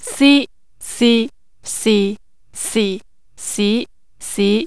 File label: Cantonese tones; speech science